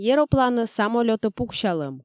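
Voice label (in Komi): "еропланӧ, самолётӧ пуксялӧм", Komi, female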